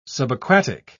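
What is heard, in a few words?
"subaquatic", British English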